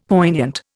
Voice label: "poignant", Indian; Dictionary